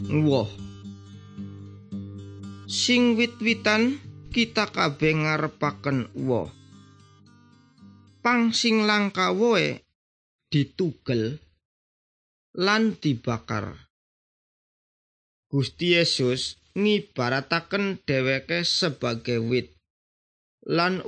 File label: good news jawa indramayu, javanese bible